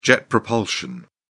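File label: "jet propulsion", British English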